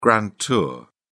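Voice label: "grand tour", English, British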